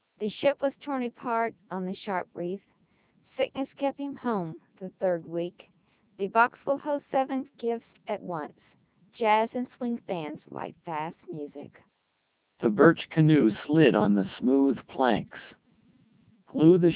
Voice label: speech CODEC2 3200 bps, American

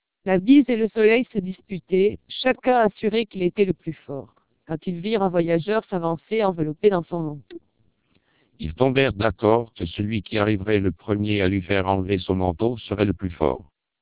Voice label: 1200 bps TWELP, French

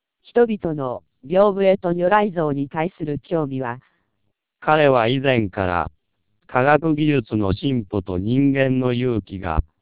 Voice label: 1200 bps TWELP, Japanese

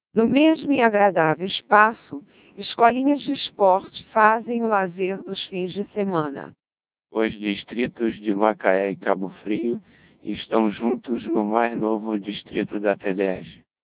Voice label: Portuguese, CODEC2 1200 bps

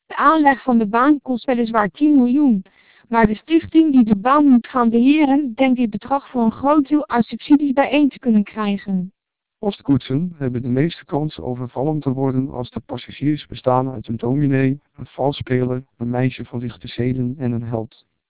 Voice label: 1200 bps TWELP, Dutch

